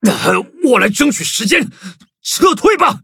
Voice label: defeat, zh-hant; cookie run

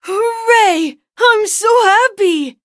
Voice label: victory, en; cookie run

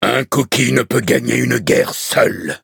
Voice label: levelup, fr; cookie run

